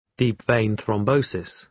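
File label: "deep vein thrombosis", British English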